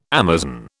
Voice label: "Amazon", British

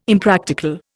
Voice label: "Impractical", Indian

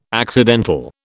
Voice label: "accidental", British

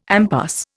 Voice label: "impasse", Indian

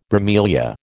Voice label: "bromelia", American

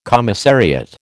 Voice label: "commissariat", American

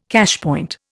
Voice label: "cashpoint", Indian

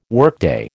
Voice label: "workday", American

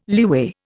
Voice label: "leeway", Indian

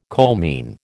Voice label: "coalmine", American

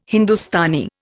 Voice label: "Hindustani", Indian

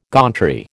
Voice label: "gauntry", American

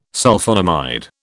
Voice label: "Sulphonamide", British

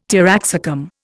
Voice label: "taraxacum", Indian

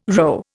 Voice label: "rho", Indian

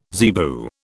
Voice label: "zebu", British

